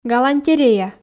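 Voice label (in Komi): "галантерея", Komi, female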